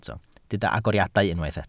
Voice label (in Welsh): "agoriadau", Welsh